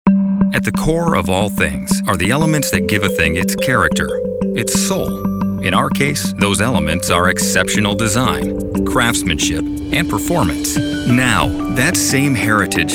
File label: Narration